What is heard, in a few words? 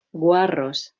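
"gweh2rus", Proto-Indo-European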